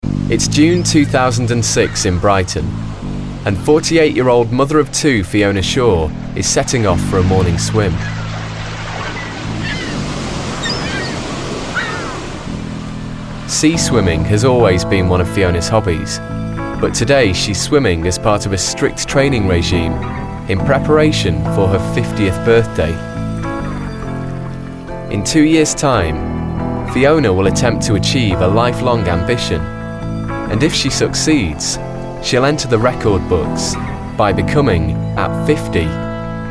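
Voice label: Northern accent Derbyshire, Male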